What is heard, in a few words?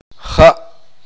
"agua", Uspanteko